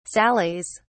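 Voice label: "sallies", British English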